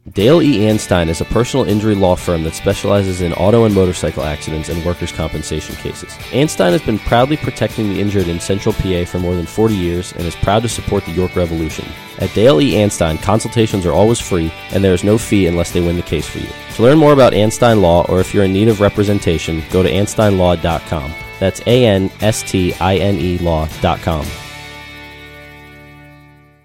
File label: commercial